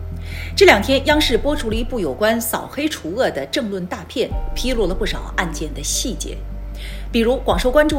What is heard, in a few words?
chinese news